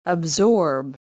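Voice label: "absorb", USA, female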